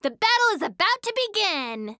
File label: Announcer Match Start Prepare; Evie